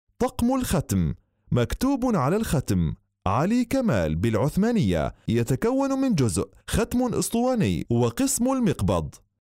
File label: arabic